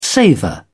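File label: "savour", English, British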